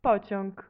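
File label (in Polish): "pociag", Polish; multimedia glossaries; transport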